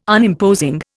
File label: "Unimposing", Indian